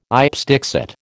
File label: "ipse dixit", American